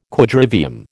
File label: "Quadrivium", American